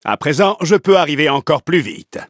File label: levelup, fr; Cookie Run